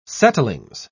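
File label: "settlings", British English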